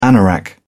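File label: "anorak", British English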